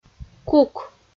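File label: "кук", Komi, female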